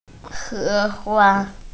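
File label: "he2hua1", Mandarin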